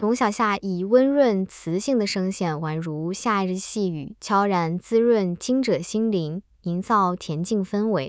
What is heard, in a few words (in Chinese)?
沉稳权威女; 中、英; longxiaoxia v2